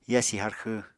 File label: "yasi harxw", Apatani